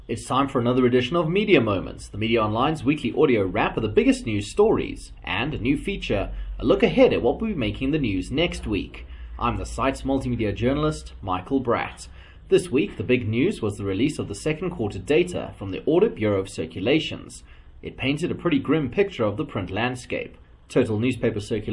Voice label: voice over